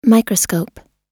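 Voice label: "microscope", American English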